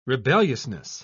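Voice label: "rebelliousness", British English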